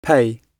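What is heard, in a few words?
"pay", British English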